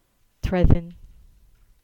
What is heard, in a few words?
Cornish; Treen